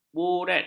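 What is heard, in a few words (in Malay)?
"buret", Malay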